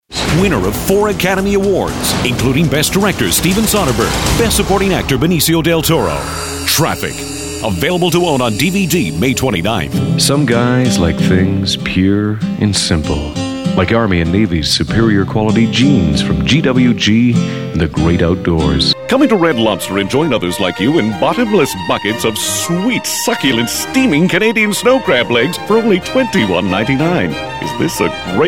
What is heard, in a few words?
Commercial